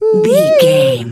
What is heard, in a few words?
voice fun character cute cartoon